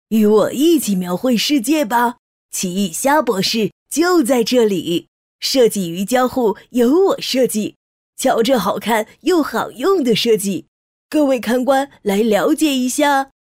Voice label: 女 男童 音色

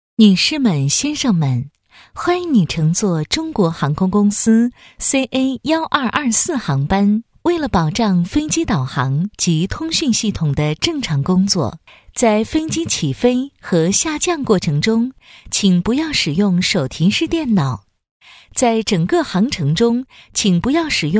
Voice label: 广播, 国航欢迎词

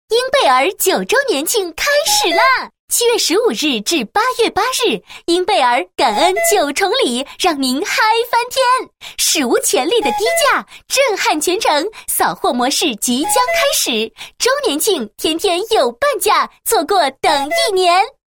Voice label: 女 促销广告; 婴贝儿9周年庆

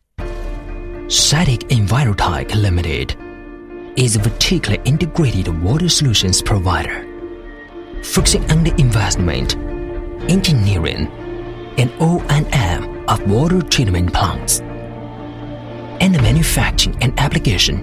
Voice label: 英男; CITIC-DEMOMUSIC

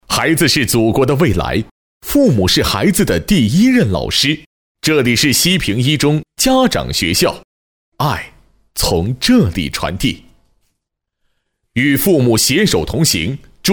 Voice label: 男,家庭教育宣传片解说词

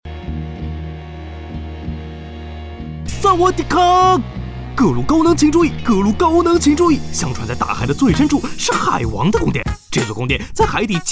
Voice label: 搞笑; 飞碟说; 人鱼传说

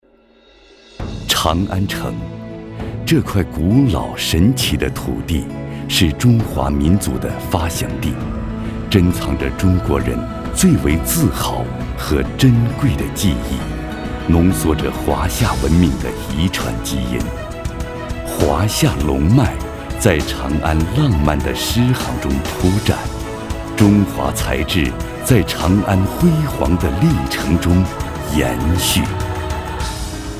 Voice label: 男 三秦印象之长安

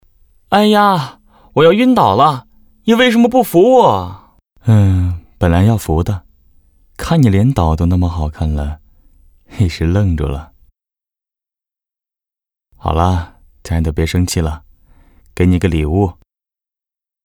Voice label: 角色 男 柔弱 温柔

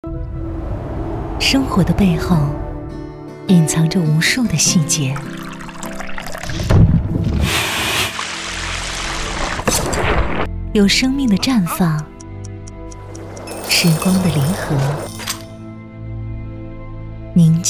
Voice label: 广告 生活宣传片旁白

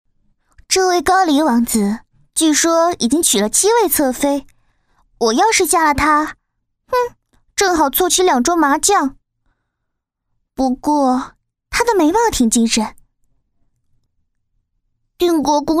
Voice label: 女 角色 娇蛮公主

